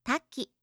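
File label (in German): "taki", japanische Mädchennamen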